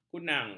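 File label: "kunang", Malay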